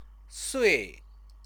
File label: "sui", Chinese